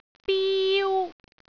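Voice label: "biu1", Cantonese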